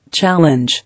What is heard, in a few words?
"Challenge", English